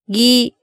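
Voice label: "gi", Japanese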